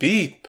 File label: Voices urgent; The Looker